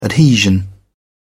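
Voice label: "adhesion", British English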